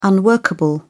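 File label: "unworkable", English, British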